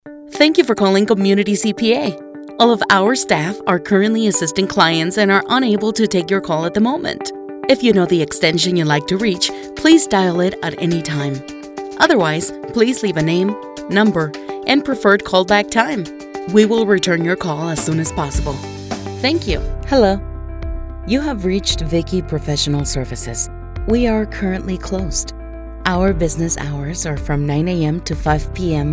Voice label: Telephone IVR English